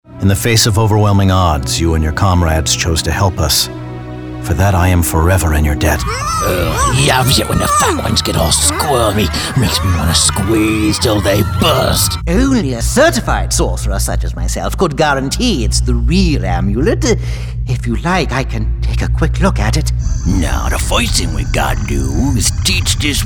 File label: Animation